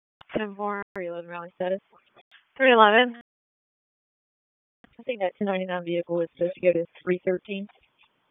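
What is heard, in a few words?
Raleigh Police Northeast District Dispatch, p25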